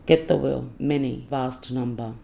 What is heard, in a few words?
"kethawil" many vast number